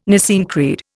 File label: "Nicene Creed", Indian